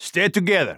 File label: radio bot regroup; Counter Strike; CS:GO; phoenix coop